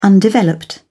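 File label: "undeveloped", British English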